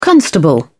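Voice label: "constable", English, British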